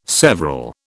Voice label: "several", British

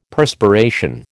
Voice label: "Perspiration", American

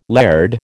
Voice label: "laird", American

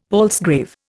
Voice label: "palsgrave", Indian; Dictionary

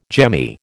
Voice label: "jemmy", American

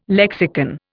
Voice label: "lexicon", Indian

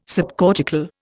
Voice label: "subcortical", Indian

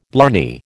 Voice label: "blarney", American

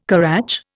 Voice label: "currach", Indian

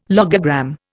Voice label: "logogram", Indian

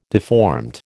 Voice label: "deformed", American

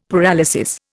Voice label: "paralysis", Indian; Dictionary

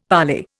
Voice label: "parlay", Indian; Dictionary

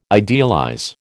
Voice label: "idealize", American

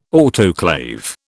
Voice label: "autoclave", British

